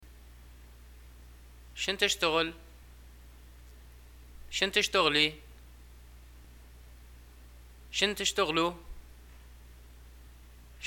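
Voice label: what do you do, Libyan Arabic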